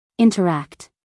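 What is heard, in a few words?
"interact", American English, female; everyone-can-use-english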